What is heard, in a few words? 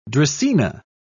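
"dracaena", British English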